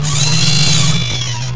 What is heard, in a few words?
CYBORG